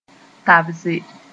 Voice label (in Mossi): "tabse", moore